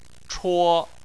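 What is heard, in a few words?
"chuo" first tone, Chinese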